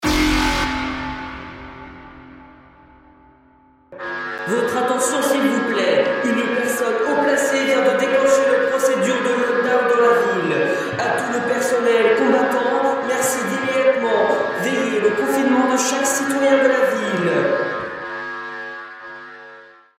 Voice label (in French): annonce lockdown